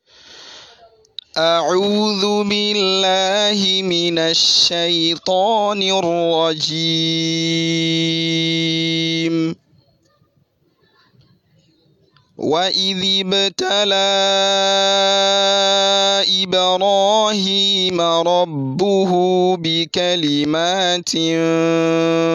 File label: My Recitation